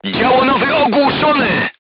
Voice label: voice message gunlayer contused, crew tanks polish